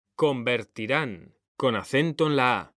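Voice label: "CONVERTIRÁN", Spanish, male; mekanta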